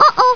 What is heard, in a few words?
"Uh Oh" Squeaky; Mario; PC; MARIOSFUNDAMENTALS